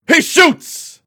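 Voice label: he shoots, basketball announcer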